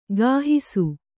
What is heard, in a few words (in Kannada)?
"ಗಾಹಿಸು", kannada